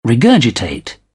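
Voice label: "regurgitate", British English; AmericanBritish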